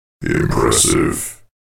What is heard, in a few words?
"impressive", announcer